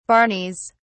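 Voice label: "barneys", British English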